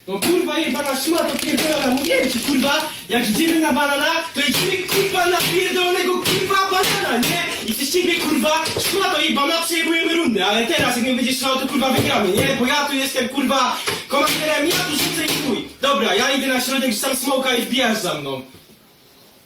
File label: Polish Guy Raging